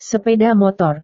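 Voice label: "sepeda motor", Indonesian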